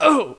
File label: human old hit; wesnoth